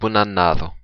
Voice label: new year, occitan; Bona annada